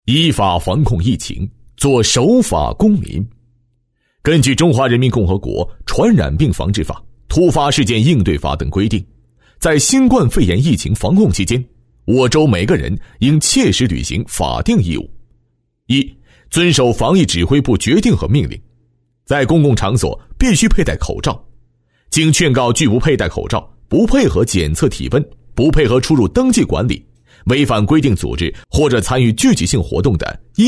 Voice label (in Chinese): 国语男 疫情类宣传片 依法防控疫情